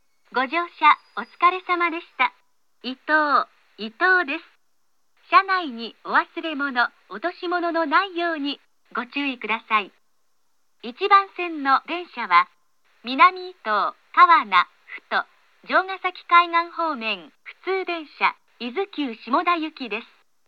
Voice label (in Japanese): 到着放送 普通列車 伊豆急下田行の到着放送です。; 伊東駅